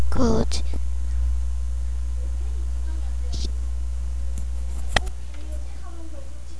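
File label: "cartoon", spoken word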